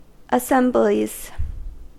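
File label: "assemblies" English, US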